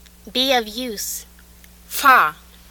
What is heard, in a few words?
Swahili f